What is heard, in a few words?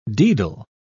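"daedal", British English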